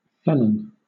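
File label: "felon", British English; pronunciations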